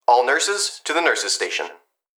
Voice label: Emergency Human Young Male Voice Hospital Calls All Nurses To The Nurses Station; emergency effects